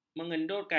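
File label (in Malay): "mengendurkan", Malay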